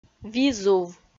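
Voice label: "визув", Komi, female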